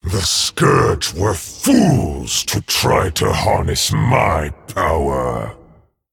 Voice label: Male Dragon Emote Picked